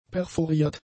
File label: "perforiert", German; adjectives